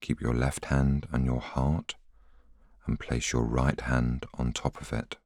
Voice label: voiceover